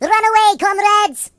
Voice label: runaway, Russian; hedgewars